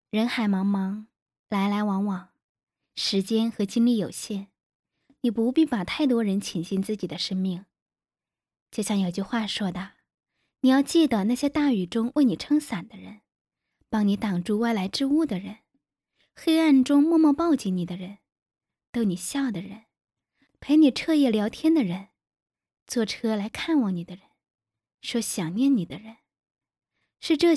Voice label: 电台作品

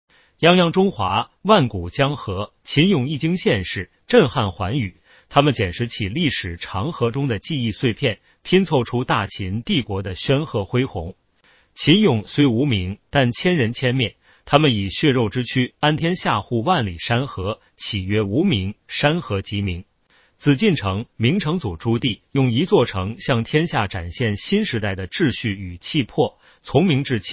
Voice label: 历史纪录片

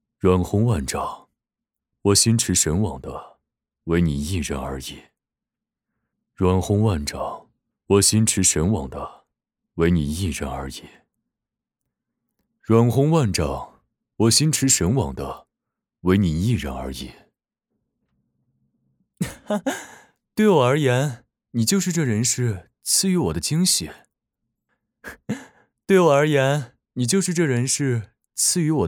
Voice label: 两个古风游戏角色

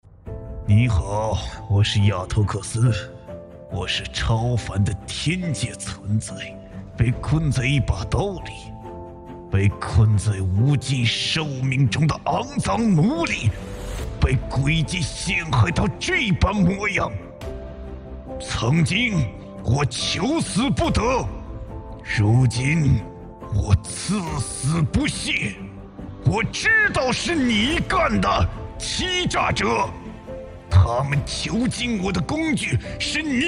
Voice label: 爆发配音; 亚托克斯; 疯狂; 嘶吼

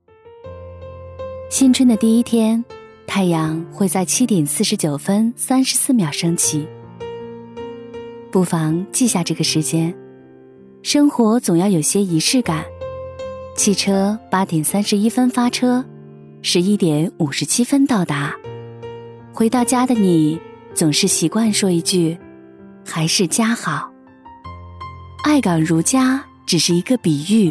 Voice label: 温暖旁白; 还是家好

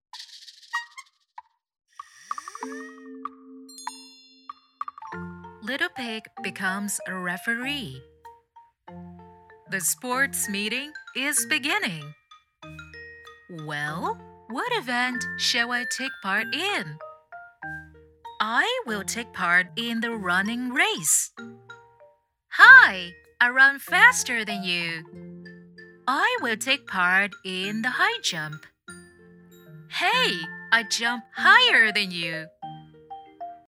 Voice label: 英文绘本; 小猪当裁判节选; 教育; 有声书; 英语

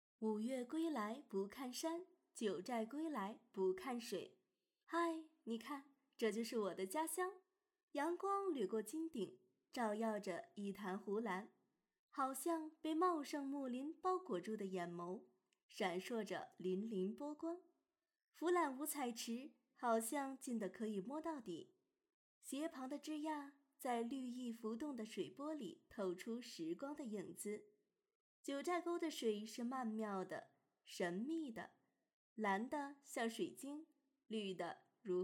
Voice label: 欢快文艺

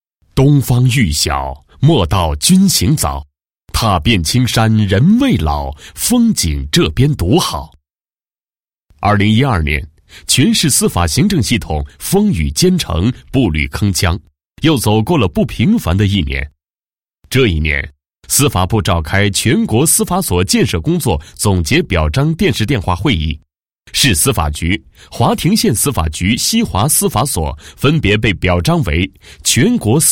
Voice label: 检察院政府宣传

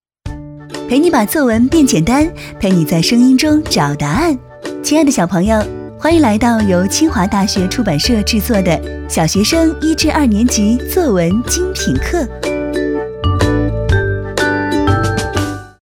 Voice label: 小学生年级作文精品课片头

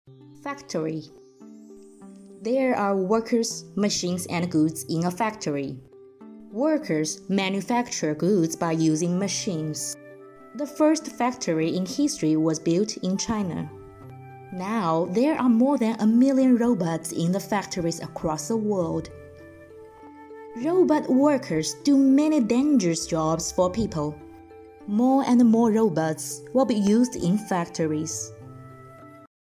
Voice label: 英文纪录片选段

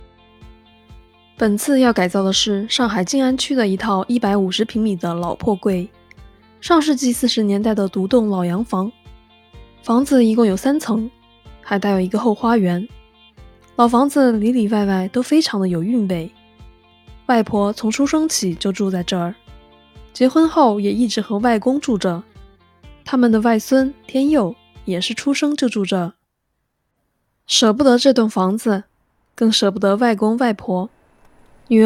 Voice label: 房屋改造解说

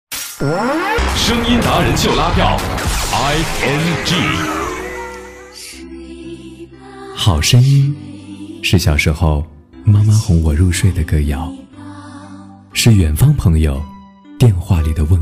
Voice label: 宣传片温暖时尚; 北京电台声音达人秀拉票

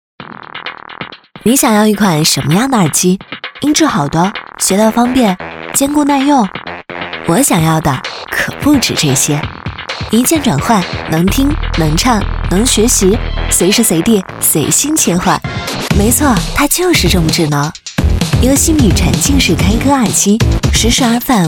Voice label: 时尚科技感耳机

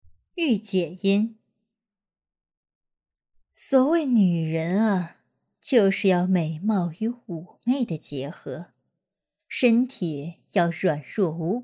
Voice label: 御姐音

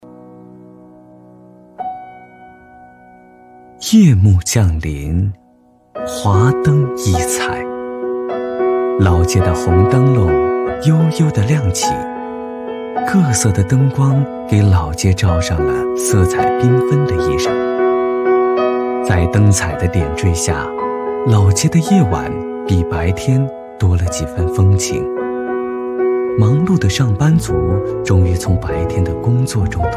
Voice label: 纪录片; 非遗

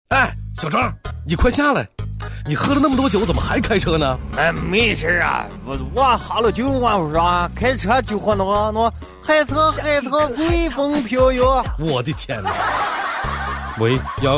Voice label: 动画开车不喝酒

